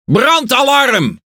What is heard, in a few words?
Speech Alarms Fire Alarm, NL; World Of Warships